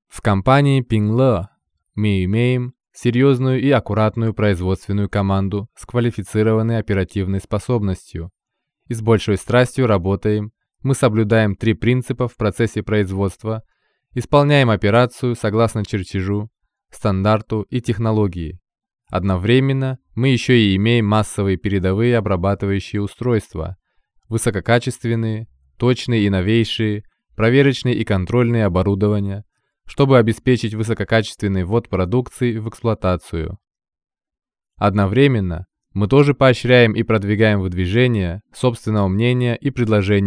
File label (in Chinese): 外籍俄语男 旁白; 乐事会视频字幕和旁白大纲